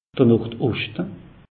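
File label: "nordoschte", Alsatian; meteo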